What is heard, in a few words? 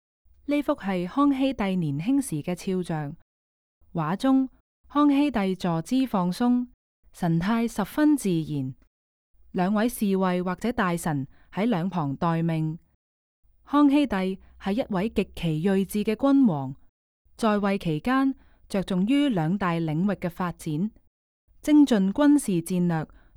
Cantonese, audio guide; versailles